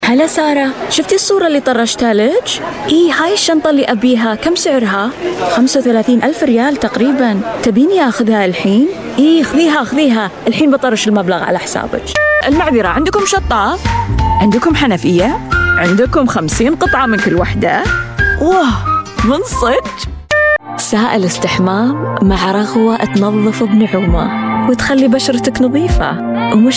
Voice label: arabic voice over female commercial

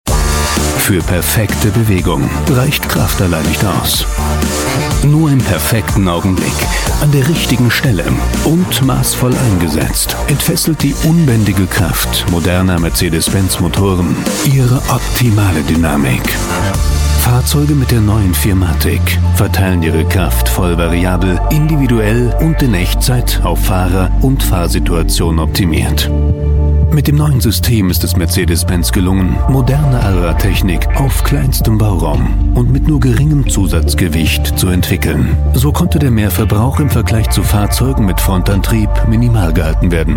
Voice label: german voice over male narrator

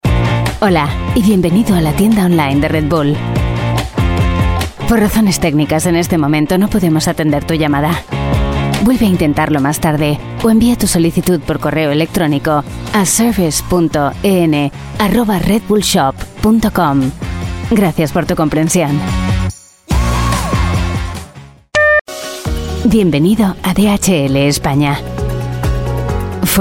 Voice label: spanish voice over female ivr

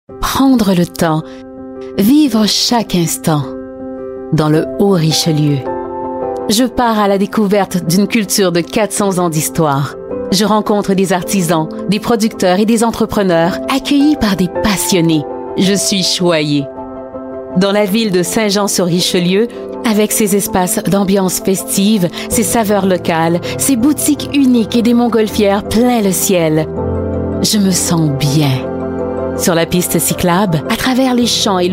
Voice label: french voice over female narrator

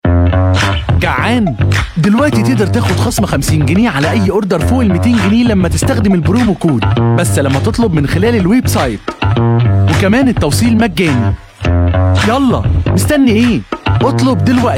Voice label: Arabic voice over, male commercial

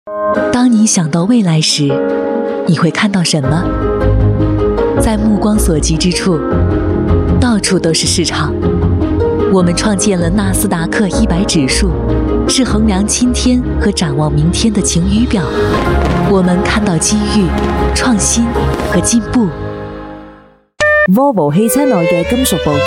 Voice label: chinese voice over female commercial

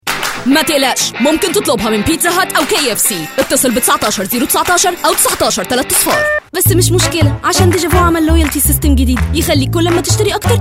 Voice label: arabic voice over female commercial

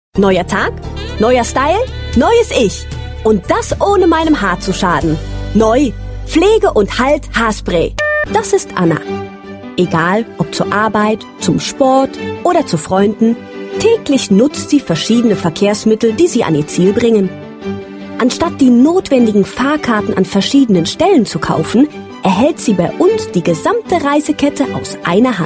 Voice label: germany voice over female commercial

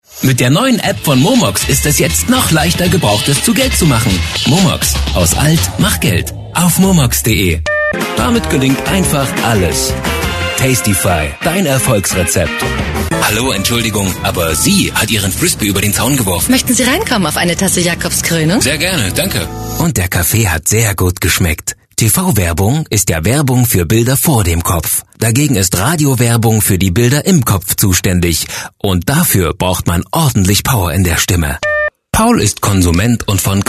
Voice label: german voice over male commercial

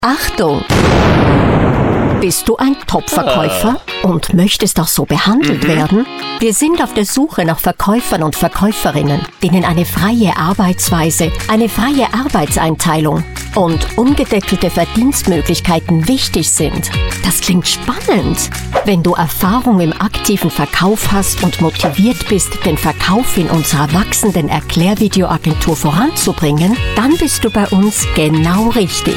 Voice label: german voice over female narrator

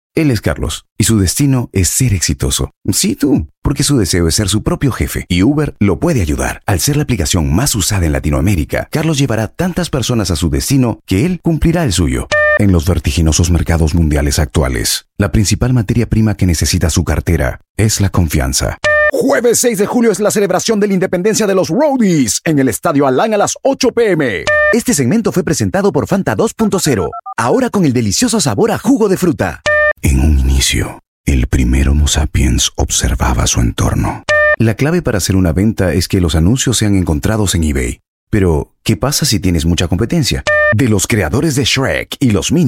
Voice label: spanish voice over male narrator

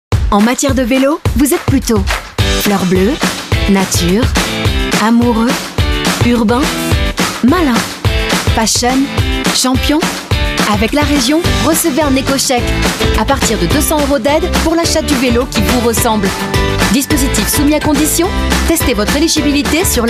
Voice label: french voice over female commercial

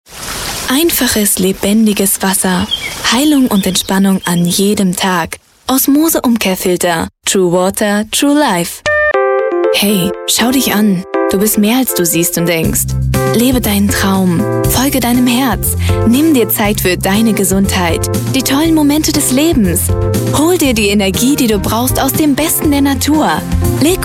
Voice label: german voice over female commercial

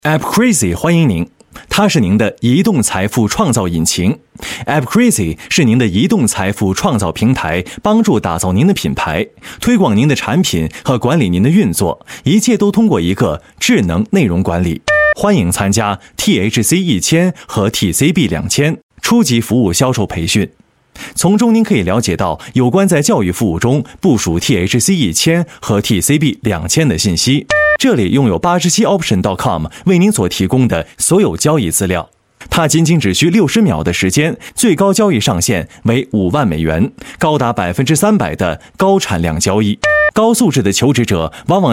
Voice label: chinese voice over male narrator

